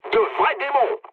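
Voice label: French enemy detected mood low; War Thunder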